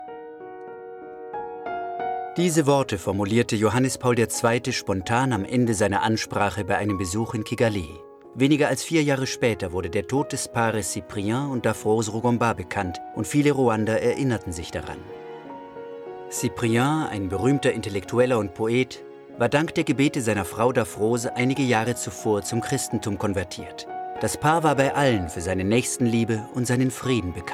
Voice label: documentary, German, male